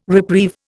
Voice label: "reprieve", Indian